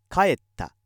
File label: male